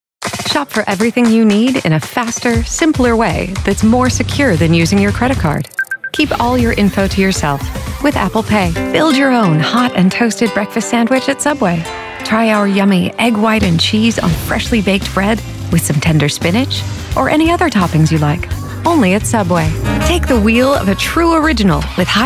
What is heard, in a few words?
Commercial